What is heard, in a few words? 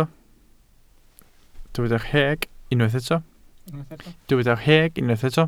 "rheg", Welsh